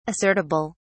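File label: "assertible", British English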